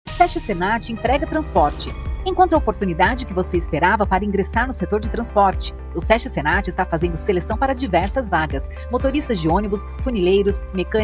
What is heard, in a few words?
Spot; Sest Senat Emprega Transporte; locucao publicitaria